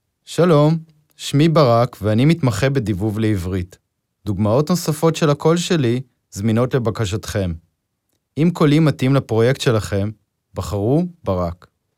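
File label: Hebrew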